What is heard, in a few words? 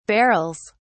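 "barrels", British English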